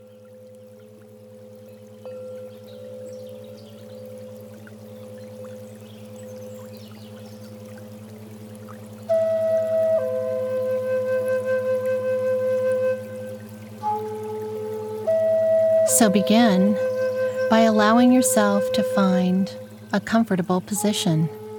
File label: Meditation